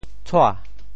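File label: "tshua2", Teochew; 潮州; 歪七扭八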